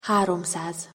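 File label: "haromszaz", Hungarian pronunciation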